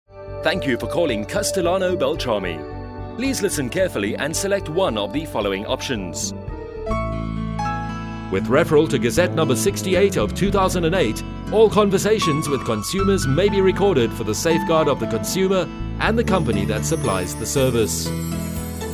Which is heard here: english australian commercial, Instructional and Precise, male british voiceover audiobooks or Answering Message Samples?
Answering Message Samples